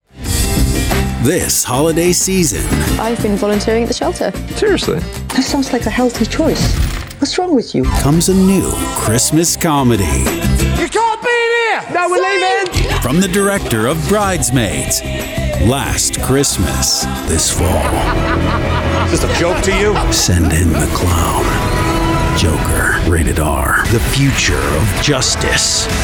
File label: Trailers